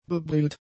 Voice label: "bebrillt", German; adjectives

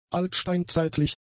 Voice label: "altsteinzeitlich", German; adjectives

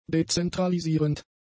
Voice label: "dezentralisierend", german; adjectives

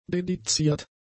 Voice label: "dediziert", german; adjectives

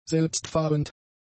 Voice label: "selbstfahrend", German; adjectives

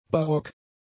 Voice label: "barock", German; Adjektiv; adjectives

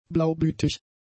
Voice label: "blaublütig", German; adjectives

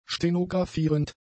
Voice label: "stenographierend", German; adjectives

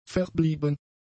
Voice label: "verblieben", German; adjectives

